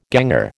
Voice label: "ganger", American

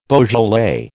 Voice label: "Beaujolais", American

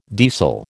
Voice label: "deasil", American

